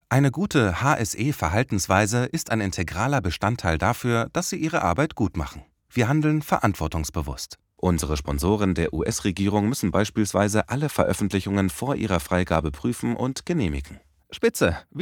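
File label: German E-learning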